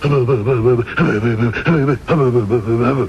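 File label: aboo boo wayway a boo boo boo, gibberish; Voice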